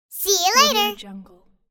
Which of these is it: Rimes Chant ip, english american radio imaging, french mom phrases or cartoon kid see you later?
cartoon kid see you later